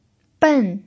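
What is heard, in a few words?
"ben", pinyin, fourth tone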